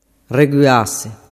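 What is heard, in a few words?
"reguase", Sicilian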